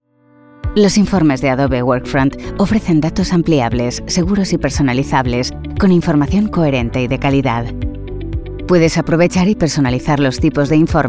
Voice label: Corporate; Adobe